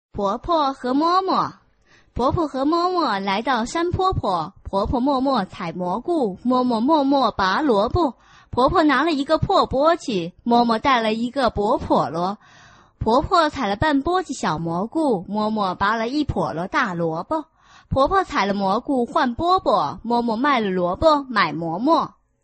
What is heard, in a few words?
chinese tongue twister